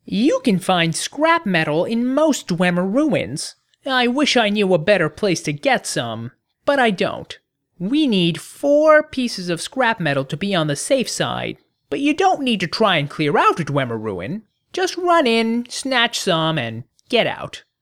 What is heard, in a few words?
bosmer audition, wood elf voice auditions